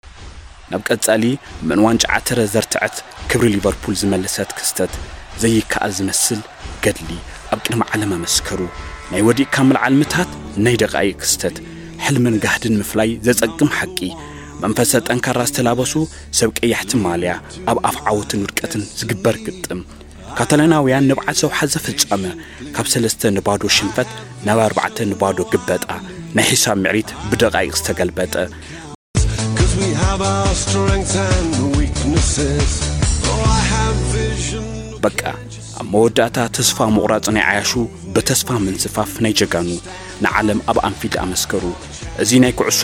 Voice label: Football in Tigrigna